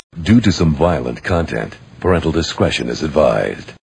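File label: due to violence discretion, male spoken word; VOCALS and SPOKEN WORD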